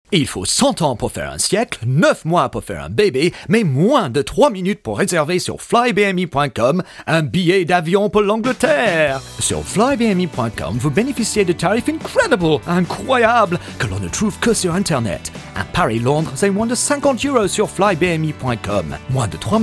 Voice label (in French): Spot Radio; BMI Offer VF